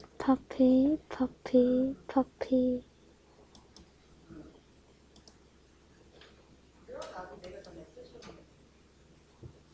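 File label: "puppy", spoken word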